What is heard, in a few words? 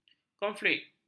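"konflik", Malay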